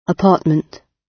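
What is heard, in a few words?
"apartment", British English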